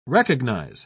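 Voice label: "recognise", British English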